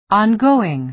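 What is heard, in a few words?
"ongoing", American English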